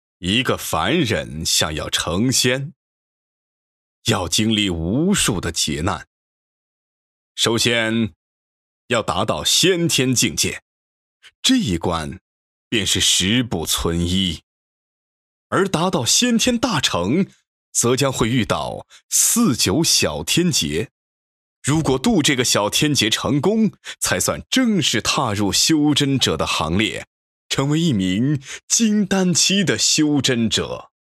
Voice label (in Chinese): 小说, 古风磁性; 一个凡人